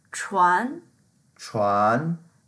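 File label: "chuan2", Mandarin Chinese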